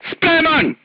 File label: voice message charged, serbian crew tanks